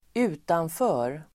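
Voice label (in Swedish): "utanför", swedish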